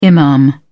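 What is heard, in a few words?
"imam", British English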